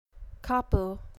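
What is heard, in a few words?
"kapu", Hawaiian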